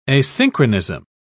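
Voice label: "asynchronism", British English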